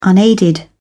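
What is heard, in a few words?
"unaided", British English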